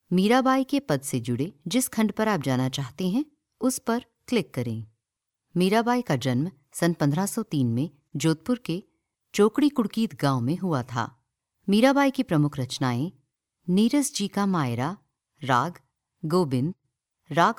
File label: female hindi voice over narrative